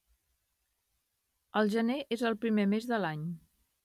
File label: catalan female speech